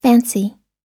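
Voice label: "fancy", English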